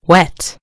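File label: "wet", British English; gcompris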